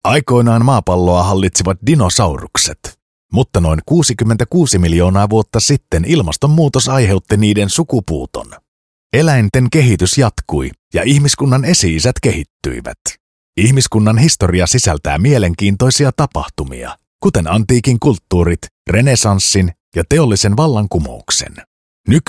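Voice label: Finnish Documentary; voice demos; GoLocalise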